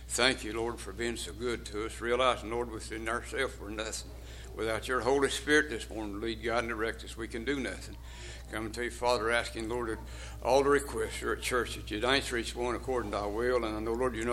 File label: Broadcast Prayer